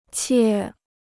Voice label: "切", Chinese

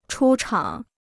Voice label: "出厂", Chinese

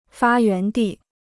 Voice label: "发源地", Chinese

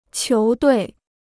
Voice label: "球队", Chinese

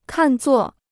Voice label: "看作", Chinese

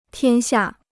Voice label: "天下", Chinese

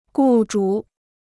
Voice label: "雇主", Chinese

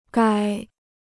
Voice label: "该", Chinese

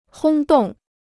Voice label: "轰动", Chinese

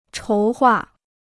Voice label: "筹划", Chinese

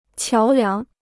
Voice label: "桥梁", Chinese

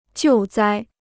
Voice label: "救灾", Chinese

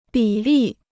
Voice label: "比例", Chinese

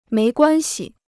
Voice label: "没关系", Chinese

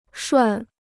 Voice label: "涮", Chinese

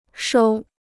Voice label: "收", Chinese

